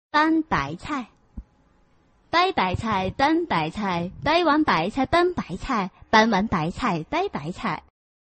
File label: chinese tongue twister